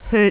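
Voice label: "hyut3", Jyutping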